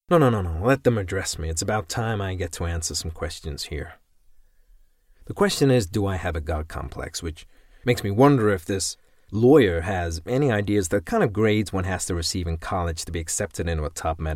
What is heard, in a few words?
American Accent; by Aaron Sorkin